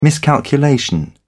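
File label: "miscalculation", British English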